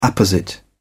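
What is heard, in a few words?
"apposite", British English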